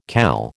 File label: "CAL", American